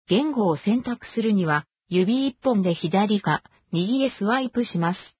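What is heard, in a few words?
intro, Japanese Japan; ja JP; VoiceView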